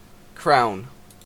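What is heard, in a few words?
"crown", Canadian English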